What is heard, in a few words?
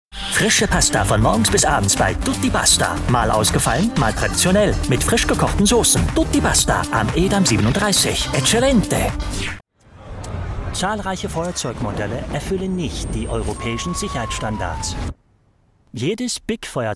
COMMERCIAL TV SPOT Radio GERMAN